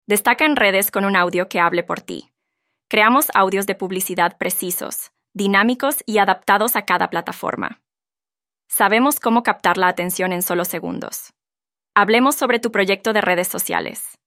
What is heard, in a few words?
Young and Soft